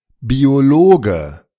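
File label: "Biologe", German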